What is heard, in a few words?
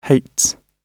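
"hates", British English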